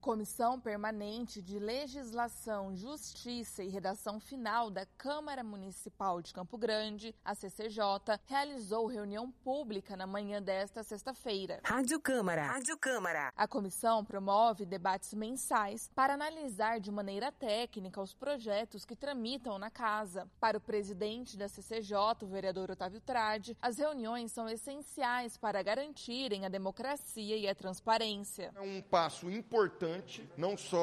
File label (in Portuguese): spot radio; reuniao publica ccj